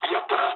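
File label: Japanese player killed enemy mood low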